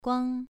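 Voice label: "guang", Mandarin Chinese, second tone, pronunciation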